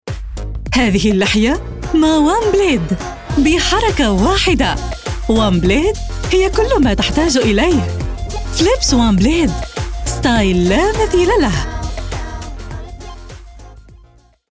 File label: Arabic TV shows